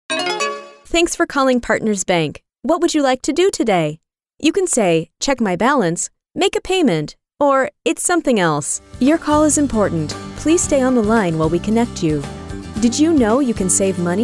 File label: IVR